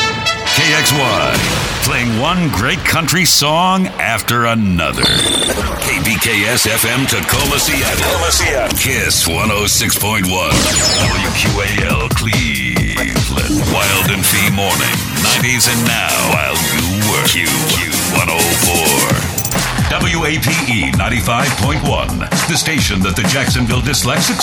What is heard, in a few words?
Radio Imaging